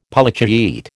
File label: "Polychaete", American